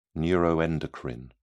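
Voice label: "neuroendocrine", British English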